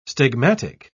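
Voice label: "stigmatic", British English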